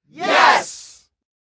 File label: Crowd Yes, Small crowd or studio audience saying; Crowd Sound Effects